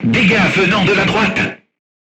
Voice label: voice message shot right, crew tanks french